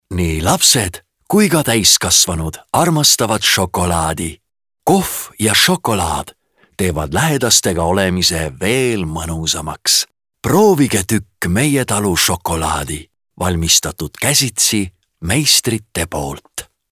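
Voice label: Estonian male Sweet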